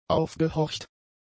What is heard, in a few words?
"aufgehorcht", German; adjectives